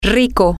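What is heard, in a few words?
rich, Spanish, female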